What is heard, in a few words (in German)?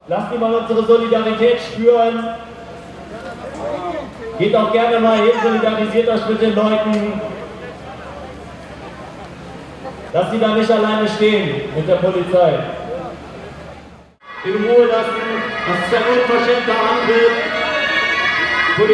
protestansage polizeikessel; versammlungsgesetz-nrw-stoppen-grundrechte-erhalten